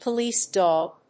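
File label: "police dog", English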